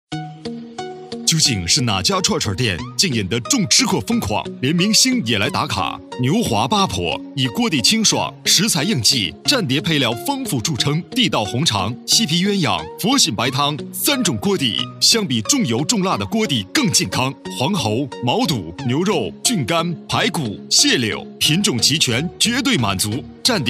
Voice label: 短视频广告; 牛华八婆串串香

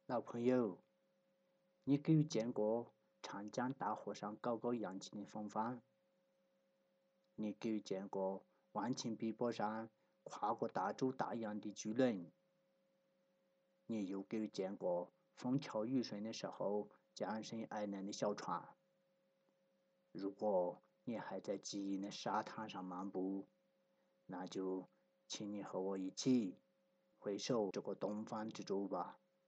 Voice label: 方言

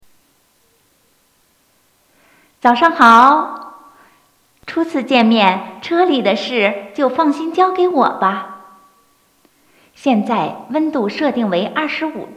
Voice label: 车载播报

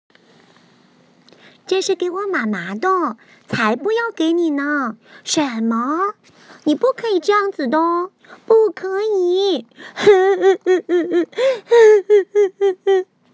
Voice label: 动漫童声

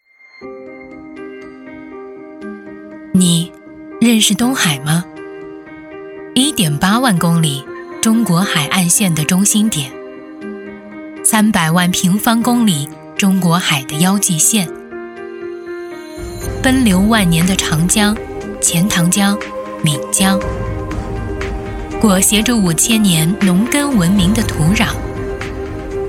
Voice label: 专题影片解说大气成熟

